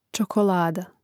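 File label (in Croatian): "čokolada", Hrvatski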